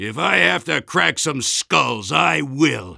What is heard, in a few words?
jeers; Soldier